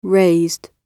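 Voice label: "raised", British English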